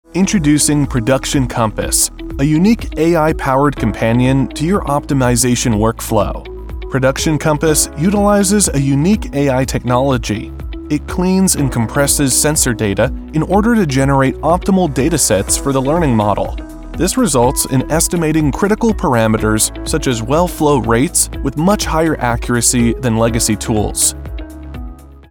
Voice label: Technical Explainer Narration